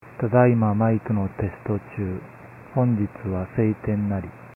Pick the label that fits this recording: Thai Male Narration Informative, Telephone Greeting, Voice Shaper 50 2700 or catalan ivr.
Voice Shaper 50 2700